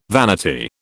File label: "vanity", British